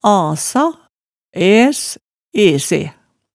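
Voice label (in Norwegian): "asa, æs, ese", Hemsedal dialect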